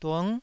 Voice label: "dung9", Taiwanese